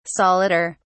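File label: "solider", English, British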